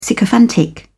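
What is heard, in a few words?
"sycophantic", British English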